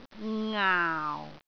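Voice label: "ngaau4", Cantonese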